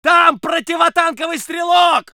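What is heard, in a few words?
voice soviet infantry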